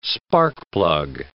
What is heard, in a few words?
"sparkplug", English